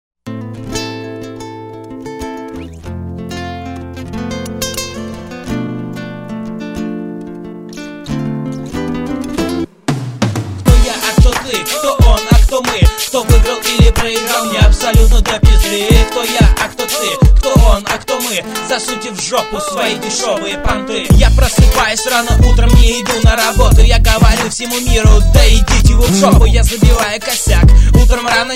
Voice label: battle rap